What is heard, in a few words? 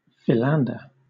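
"philander", British English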